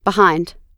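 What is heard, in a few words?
"behind", English; oxford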